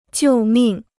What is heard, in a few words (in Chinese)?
"救命", Chinese